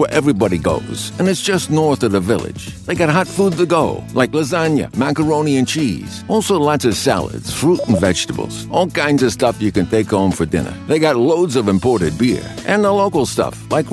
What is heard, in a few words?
deep american, new york guy